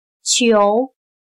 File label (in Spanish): "球", Chino